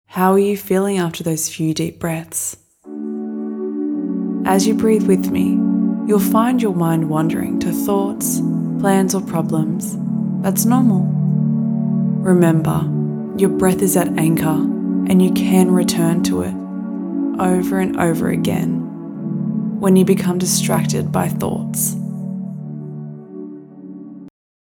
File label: Meditation